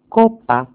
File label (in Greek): "kopa", greek; κόππα; koppa